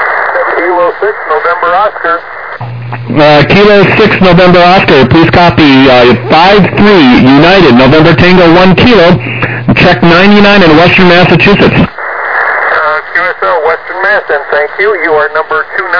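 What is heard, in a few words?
upper sideband 21MHz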